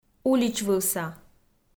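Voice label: "уличвывса", Komi, female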